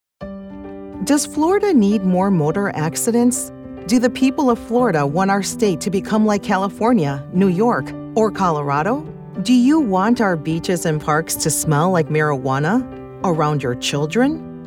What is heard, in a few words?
Vote No on Amendment 3 FL, political voiceover